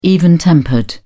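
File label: "even-tempered", British English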